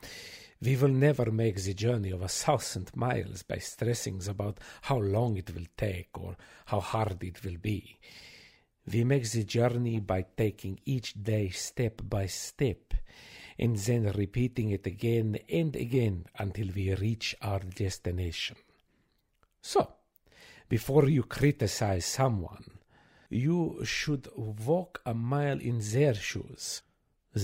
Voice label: German